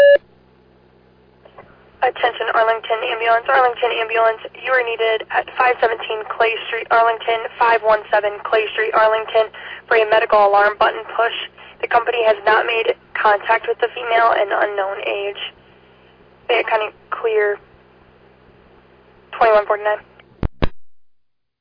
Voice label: Arlington Fire, dispatch